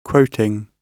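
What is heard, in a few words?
"quoting", British English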